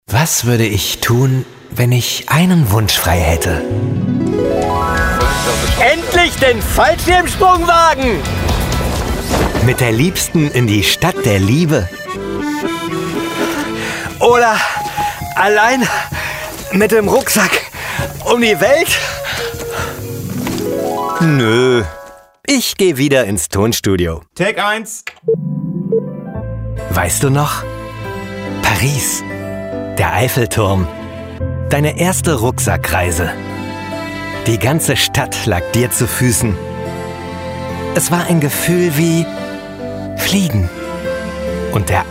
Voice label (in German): Sprecher Werbung; Muttersprache Deutsch; Sprecher in Berlin